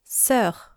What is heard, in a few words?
"soeur", French